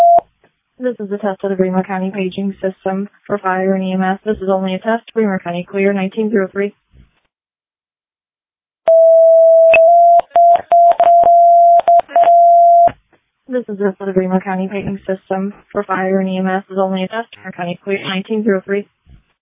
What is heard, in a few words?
All Call, dispatch